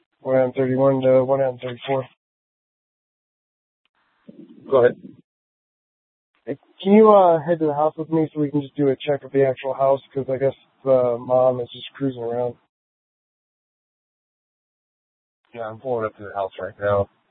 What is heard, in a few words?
Mesa PD Central Dispatch, scanner; TOPAZ Regional Wrlss Cooperative (TRWC); Mesa Simulcast